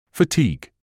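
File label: "fatigue", British English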